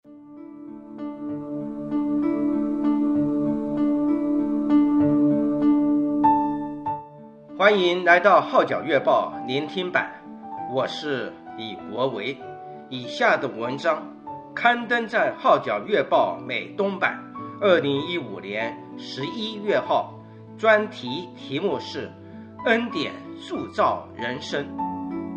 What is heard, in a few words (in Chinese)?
Mandarin